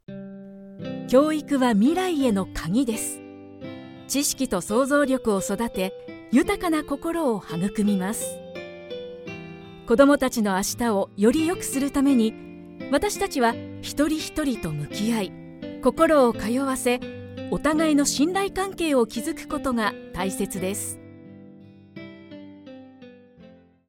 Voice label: japanese documentaries